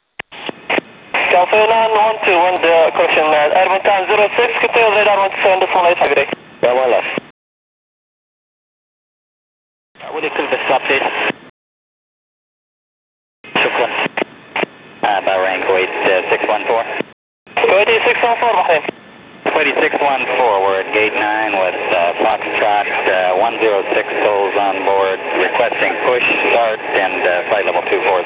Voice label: ATC CHATTER, Aircraft A320 321